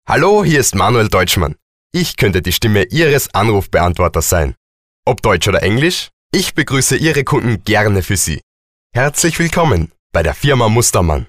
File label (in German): Sprecher Telefonansagen